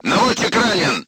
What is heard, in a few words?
voice message gunlayer wounded, crew tanks russian